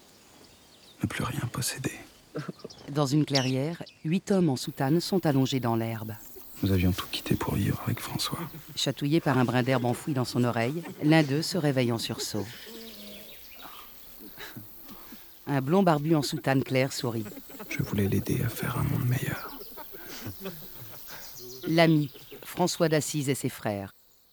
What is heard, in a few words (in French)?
Audiodescription